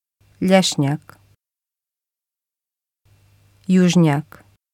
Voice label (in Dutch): "ljesnjak", bosnisch